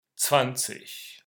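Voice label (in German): "zwanzig", German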